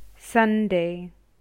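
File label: "Sunday", English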